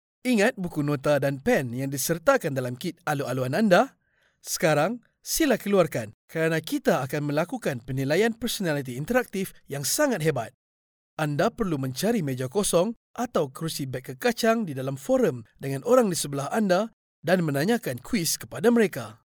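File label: Malay Malaysia male voice over, upbeat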